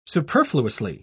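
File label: "superfluously", English, uk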